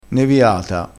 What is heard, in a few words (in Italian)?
"neviata", crespinese